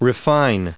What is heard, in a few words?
"refine", us